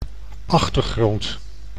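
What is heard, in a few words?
"achtergrond", Dutch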